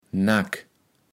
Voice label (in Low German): "Näck", Platt